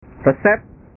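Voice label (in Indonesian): "resep", Indonesian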